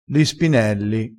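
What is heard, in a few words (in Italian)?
"spinelli", vocabolario dialettale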